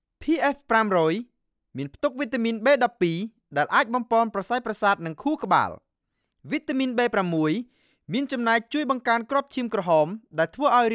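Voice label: Khmer, commercial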